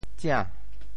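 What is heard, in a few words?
"tsia~2", Teochew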